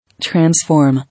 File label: "Transform", English